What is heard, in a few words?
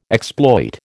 "exploit", American